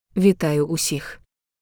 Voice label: Ukrainian Greetings everyone

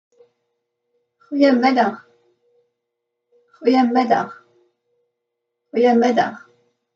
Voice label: Afrikaans Good afternoon; Greetings

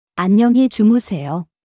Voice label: Korean Good night; Greetings

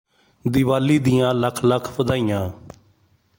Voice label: Punjabi Happy Diwali; Greetings

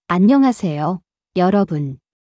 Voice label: Korean Greetings everyone

